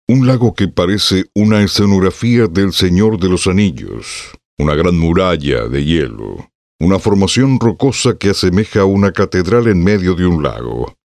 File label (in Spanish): Demo Off Podcast, voz en off; locucion profesional